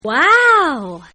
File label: Woman Says "WOW"; Exclamations; Voice